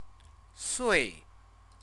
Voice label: "sui", Chinese; CTCFL; University of Oxford